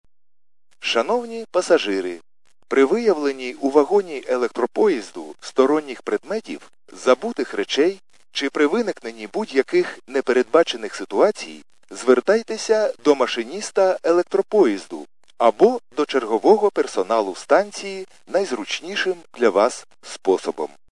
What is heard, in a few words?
khs st male, subway station